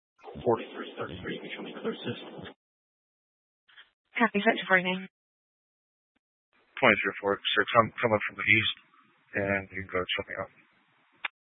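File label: Sheriff Dispatch, Scanner audio recording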